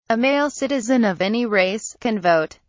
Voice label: male citizen; gobrazuca